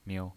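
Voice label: "miu", pinyin voice, Mandarin Chinese, neutral tone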